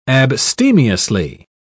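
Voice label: "abstemiously", British English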